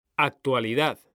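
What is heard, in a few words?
"actualidad", Spanish, male; mekanta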